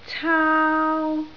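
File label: "caau1", Cantonese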